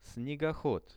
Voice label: "snegohod", Russian; снегоход; transport; Что это? Это снегоход.; visual-dictionary

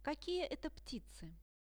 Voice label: "kakie eto pticy" Russian; Какие это птицы?; лебеди; birds; RUSSIAN VISUAL DICTIONARY

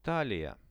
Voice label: "talia", Russian; талия

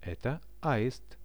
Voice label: "eto aist" Russian; Это аист; birds; RUSSIAN VISUAL DICTIONARY

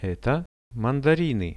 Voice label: "eto mandariny", Russian; Это мандарины.

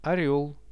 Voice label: "orel" Russian; орёл; birds; Какая это птица?

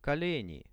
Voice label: "koleni", Russian; колени

